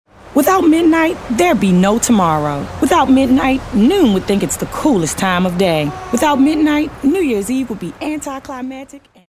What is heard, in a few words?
attitude